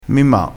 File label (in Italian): "mi", crespinese